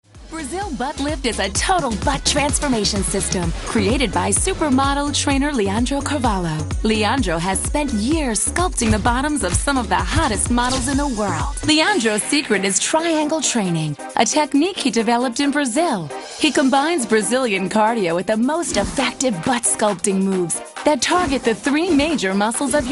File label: Infomercial